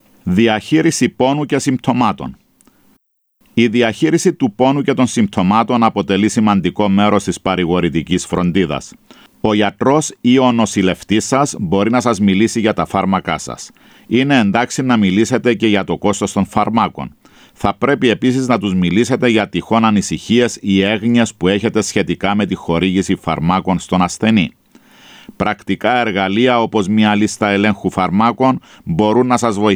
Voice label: Palliative Care Greek; Pain and symptoms management